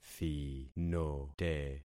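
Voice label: "thinote", concatenated syllables; Affixing experiment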